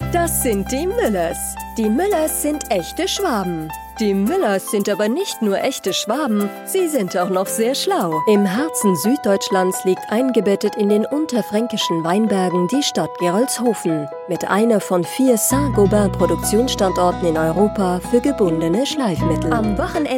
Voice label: Explainer Videos German